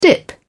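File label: "dip", British English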